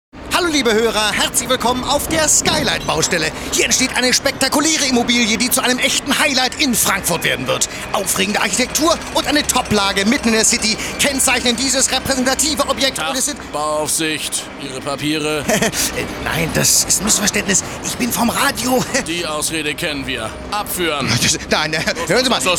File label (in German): reporter berichterstattung; Sprachproben Vol. I